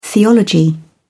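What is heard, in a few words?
"theology", British English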